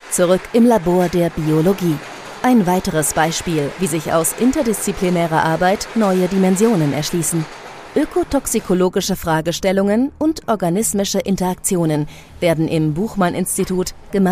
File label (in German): Forschungsdokumentation erwachsen sachlich; Robust Nature; LOFT VOICES